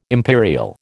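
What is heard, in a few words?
"imperial", American English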